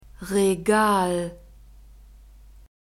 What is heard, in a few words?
"Regal", German